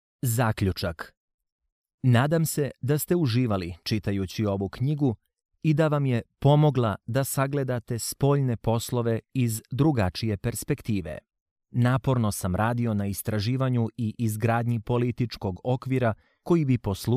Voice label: Audiobook, bosnian